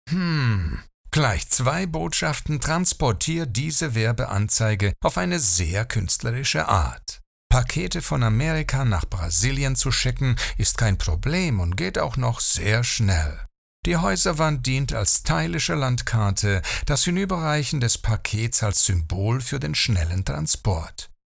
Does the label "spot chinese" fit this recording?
No